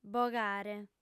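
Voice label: "bogare", female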